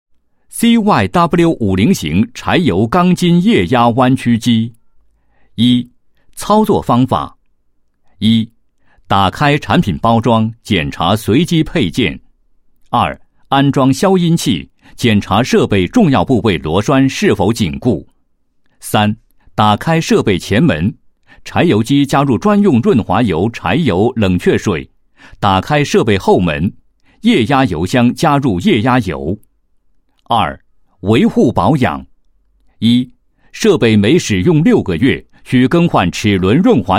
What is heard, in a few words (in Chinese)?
男 解说词; 柴油钢筋液压弯曲机